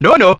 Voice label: "no no" british bloke; expletives